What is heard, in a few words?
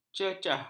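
"cecah", Malay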